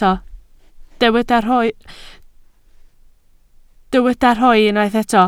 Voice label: "rhoid", Welsh

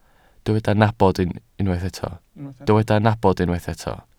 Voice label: "nabod", Welsh

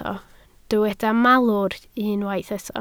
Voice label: "malwr", Welsh

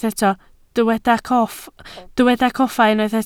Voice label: "coffa", Welsh

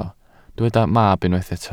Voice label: "mab", Welsh

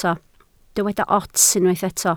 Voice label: "ots", Welsh

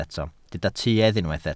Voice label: "tuedd", Welsh

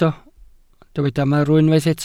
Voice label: "marw", welsh

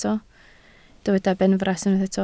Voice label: "benfras", Welsh

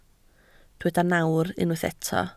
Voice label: "nawr", Welsh

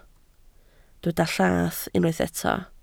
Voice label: "llath", Welsh

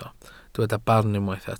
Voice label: "barn", welsh

